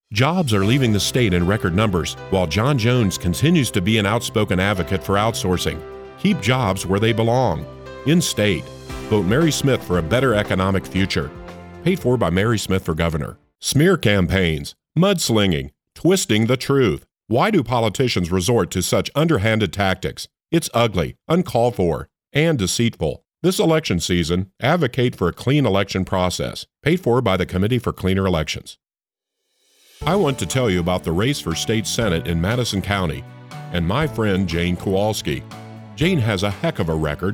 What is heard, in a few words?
POLITICAL ADS; Imaging, Promo & Trailer Demo